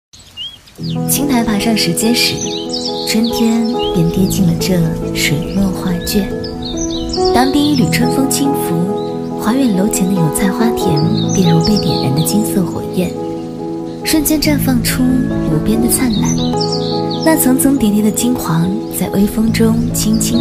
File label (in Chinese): 温柔